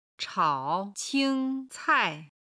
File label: "chao3 qing1 cai4", Chinese